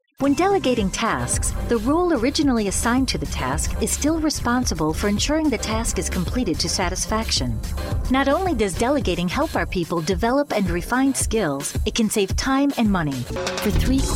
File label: Narration Female; commercial voiceover